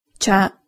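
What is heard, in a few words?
"cha", Japanese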